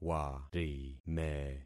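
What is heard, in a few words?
"warime", concatenated syllables; Affixing experiment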